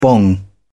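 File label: "bong", British English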